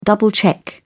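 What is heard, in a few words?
"double-check", British English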